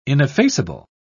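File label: "ineffaceable", British English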